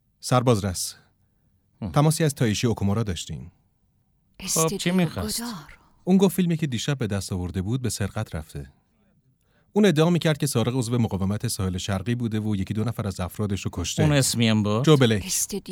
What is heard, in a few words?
dub